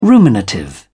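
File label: "ruminative", English, British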